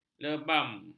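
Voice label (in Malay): "lebam", Malay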